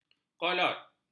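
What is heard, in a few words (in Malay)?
"kolot", Malay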